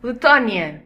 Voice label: "latvia", pt; gcompris; geography